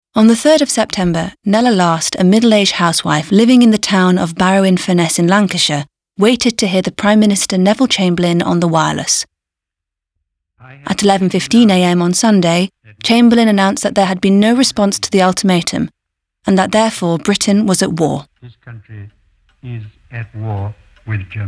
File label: audio drama